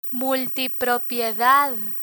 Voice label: "multipropiedad" Spanish; hotel features; learn spanish